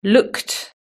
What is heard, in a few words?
"looked", British English